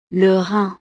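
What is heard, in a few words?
"le rein", French; partes del cuerpo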